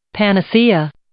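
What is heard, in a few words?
"panacea", English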